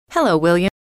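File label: "hello william", female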